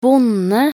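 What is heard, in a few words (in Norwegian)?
"bonde", Norwegian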